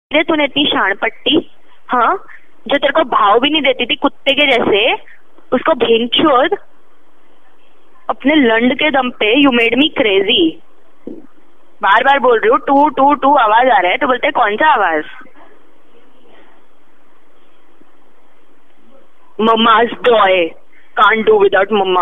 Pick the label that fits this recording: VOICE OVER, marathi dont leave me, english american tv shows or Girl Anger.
Girl Anger